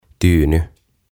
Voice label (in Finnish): "Tyyny" Cushion; OTAVA KONSERNI